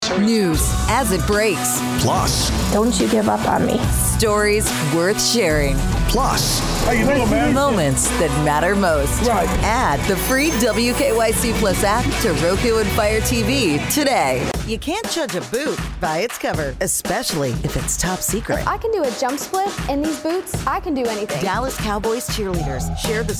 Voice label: tv affiliate women